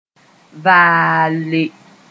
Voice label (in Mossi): "vaalle", moore